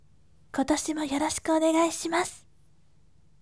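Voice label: "kotoshimo yorosiku", gentle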